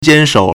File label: "jian1 shou3", Chinese